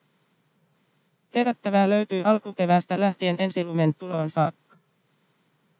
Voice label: cleaned babble